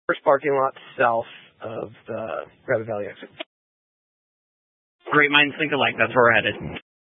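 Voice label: Incident Tactical, scanner audio recording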